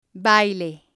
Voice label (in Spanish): "baile", spanish